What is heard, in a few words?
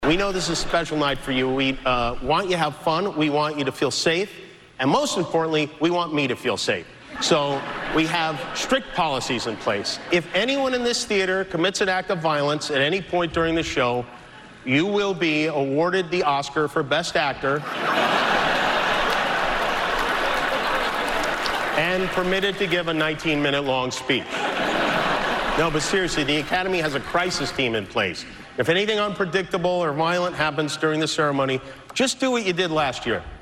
monologue; JIMMY KIMMEL; OSCARS